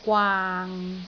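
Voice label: "gwaang6", Cantonese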